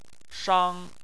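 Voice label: "shang" tone 1, Chinese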